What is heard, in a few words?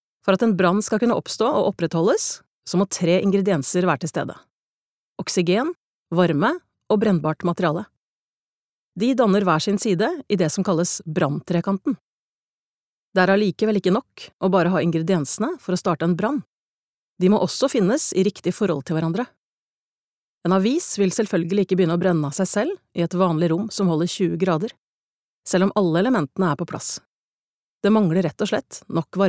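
Norwegian e-learning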